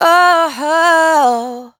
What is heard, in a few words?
"Oh run" adlib, dry vocals; Raw Vocals; Adlibs